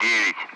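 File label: Speech Sound Russian Common Allied Flight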